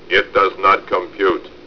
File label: it does not, robot; robot b9